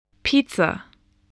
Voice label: "pizza", English